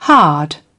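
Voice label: "hard", British English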